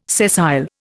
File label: "sessile", Indian